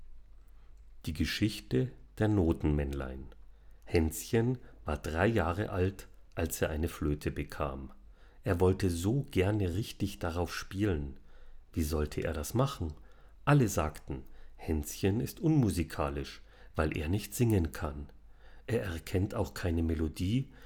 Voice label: Lewitt lcT 640 TS voice; t.bone Retro

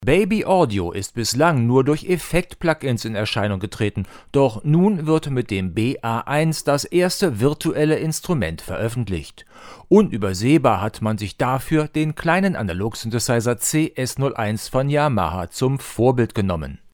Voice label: tbone sct 700 frontal 10 cm

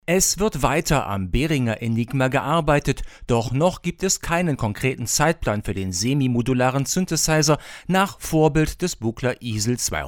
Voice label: XLR Rode Broadcaster

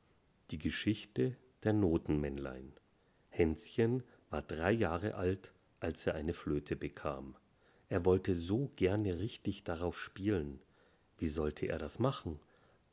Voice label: Sennheiser e865s voice; t.bone Retro

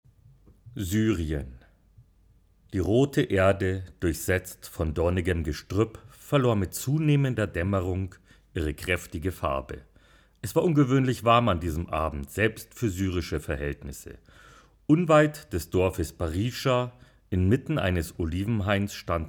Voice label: Telefunken TF39 Voice Niere

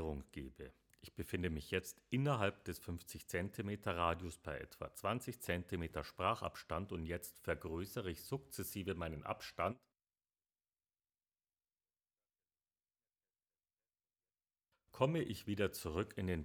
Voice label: Lewitt RAY Aura MUTE 50 CM

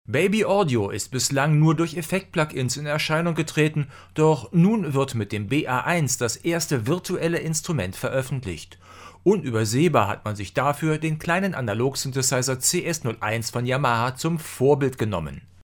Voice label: rode broadcast frontal 20 cm

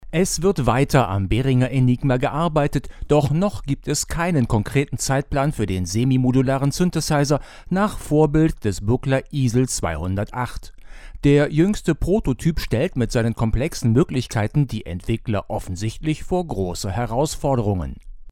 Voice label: Maono PD400X XLR Rode NT1A

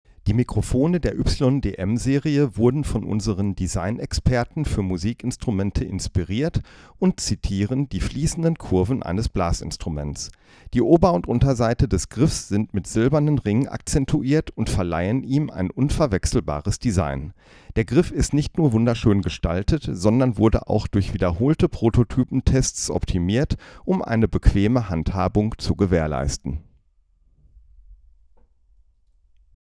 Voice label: Yamaha YDM505

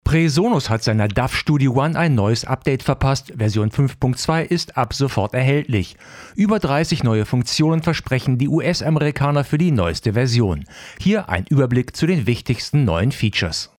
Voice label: Zoom PodTrak P8 Comp DeEss

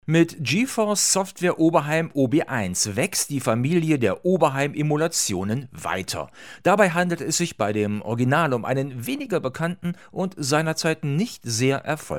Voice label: Rode Interview Pro Wireless Pro Autogain HPF 0

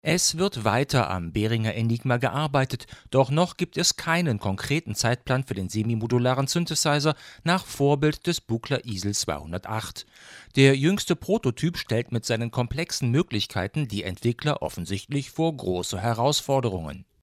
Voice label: Maono PD400X USB Bright Near

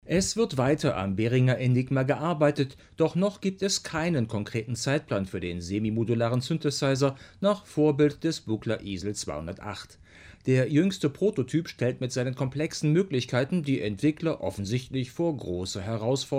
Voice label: Maono PD400X USB Deep Far

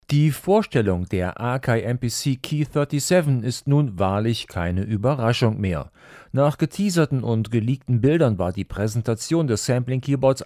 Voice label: Hercules Stream 200 XLR Natural Dark

